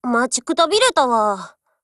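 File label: HELLO Japanese, Voice; GF Alarm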